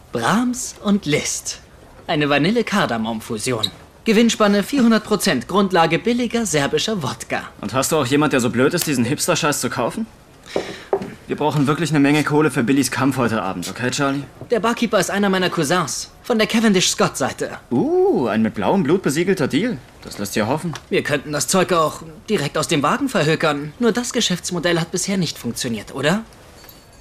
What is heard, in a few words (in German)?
Synchron, maenner; Snatch